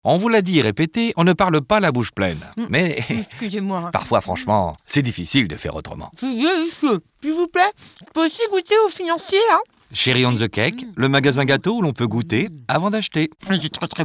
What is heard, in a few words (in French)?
radio pub spot